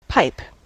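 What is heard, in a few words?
"pipe", US English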